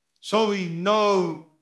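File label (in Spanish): Voice Acting, Spanish, male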